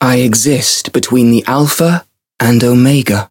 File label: chant